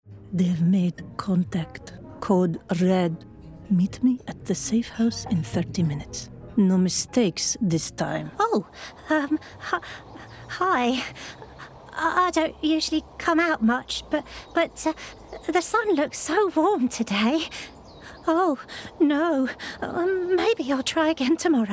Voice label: Character Montage

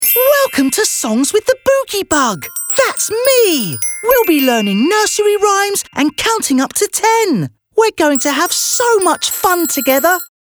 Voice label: Characters, Young; Boogie Bug; characters animation

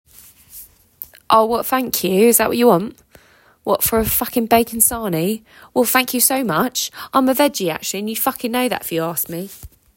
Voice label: Cockney

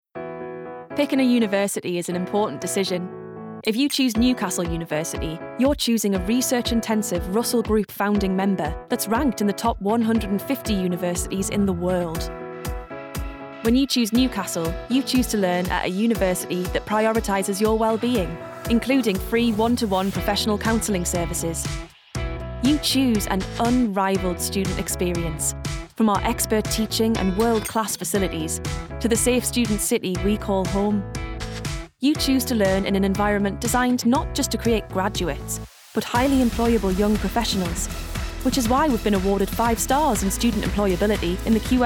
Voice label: Corporate; NewcastleUni

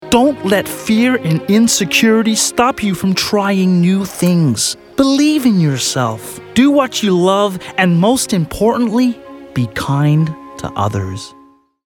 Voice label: Muppet, Character, Fun, Kids; characters animation

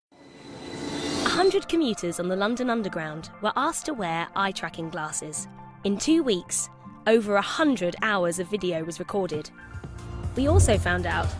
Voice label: Voice Reel Commercial

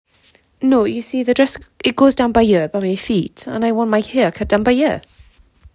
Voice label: Proper Welsh

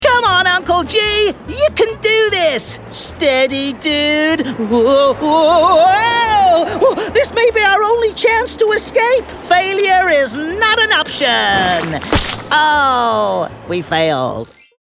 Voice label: Kids Voice, Young; characters animation; We Failed

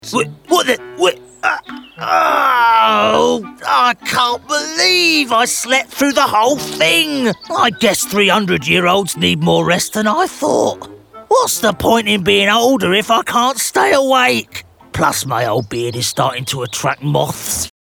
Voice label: Grumpy Man, Comedic, Gravelly, Imaginative; characters animation

